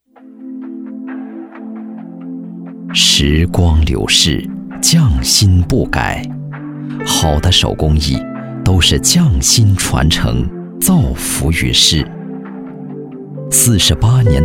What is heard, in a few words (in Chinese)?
低沉; 产品; 匠心独具手工艺